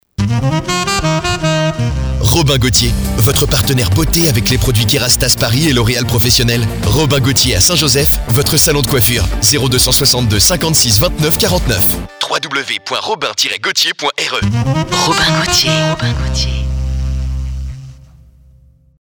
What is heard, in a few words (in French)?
Spot Radio; HitFM; kerastase; st joseph